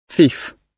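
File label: "thief", British English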